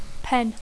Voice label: "pen", English